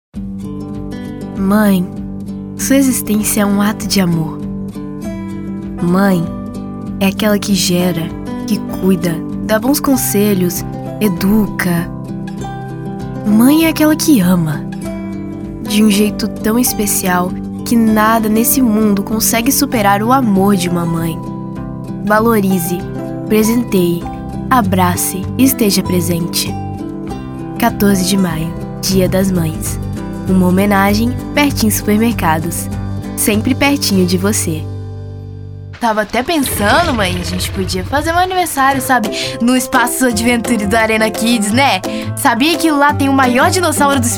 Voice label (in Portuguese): masculino infantil